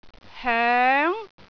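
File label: "hoeng2", Cantonese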